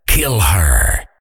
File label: "kill her", voiceover fighter; kenney voiceoverfighter